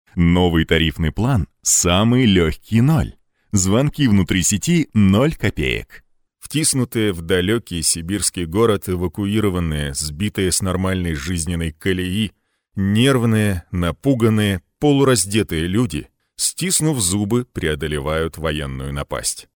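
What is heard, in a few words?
Russian Russia male character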